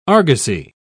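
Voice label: "argosy", British English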